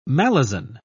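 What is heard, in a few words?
"malison", English, uk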